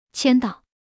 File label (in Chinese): "签到", Mandarin